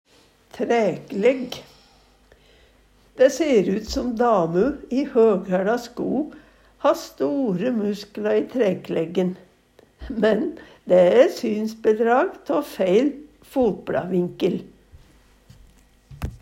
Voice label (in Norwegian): træklegg, Numedalsmål